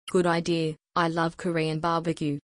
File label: female